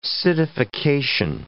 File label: "citification", English